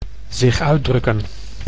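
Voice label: "zich uitdrukken", Dutch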